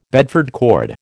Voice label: "Bedford cord", American